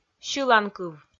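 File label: "сьыланкыв", Komi, female; kpv; audio dict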